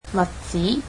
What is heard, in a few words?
cantonese socks